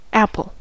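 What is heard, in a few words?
"apple", spoken word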